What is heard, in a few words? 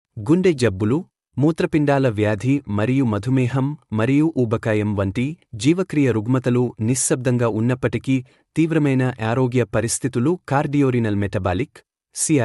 Male Telugu